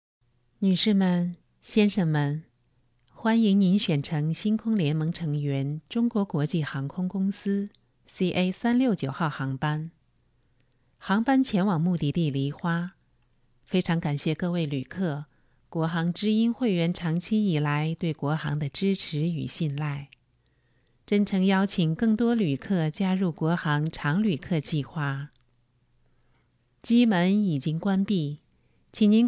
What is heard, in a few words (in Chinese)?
飞机广播